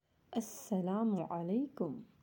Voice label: "Asslamu Alaikum", Arabic